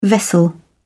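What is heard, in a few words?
"vessel", British English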